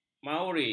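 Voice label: "maori", Malay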